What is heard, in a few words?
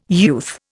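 "youth", Indian English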